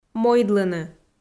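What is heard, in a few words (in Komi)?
"мойдлыны", Komi, female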